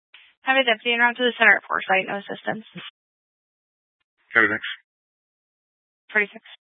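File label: Police 1 Dispatch, Scanner audio recording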